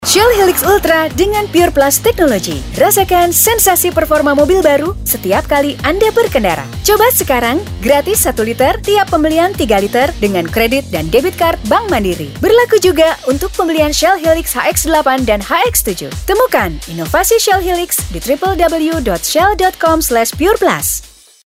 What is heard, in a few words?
radio commercial; SHELL